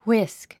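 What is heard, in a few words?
"whisk", English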